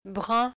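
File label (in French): "brun", French; œ̃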